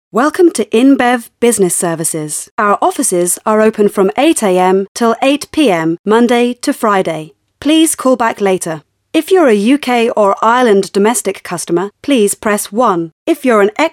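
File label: Female English ivr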